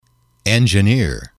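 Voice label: "engineer", English, male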